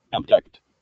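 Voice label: "abduct" US English; pronunciation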